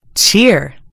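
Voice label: "cheer", American English; gcompris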